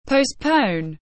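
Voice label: "postpone", English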